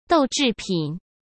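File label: "豆制品", Mandarin Chinese; hsk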